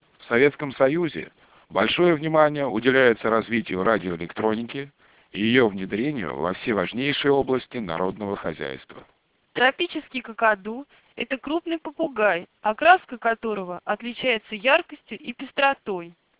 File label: speech melp 4400 bps